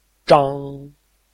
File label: "zhang1", Chinese